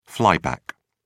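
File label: "flyback", British English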